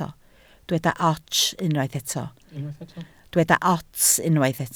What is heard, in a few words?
"ots", Welsh